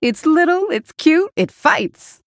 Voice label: Female Human Recruit Small Minion; Bacon Shop Bob

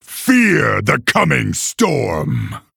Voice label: Male Orc Start

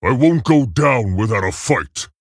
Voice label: Male Tauren Play

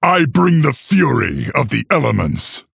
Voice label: Male Orc Start

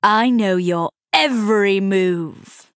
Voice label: Female Night Elf Threaten

